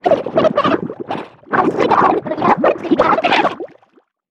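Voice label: victory, Japanese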